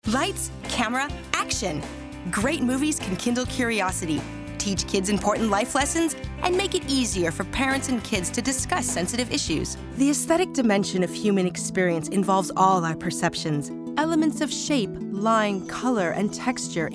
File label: Narration VO